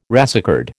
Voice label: "racecard", American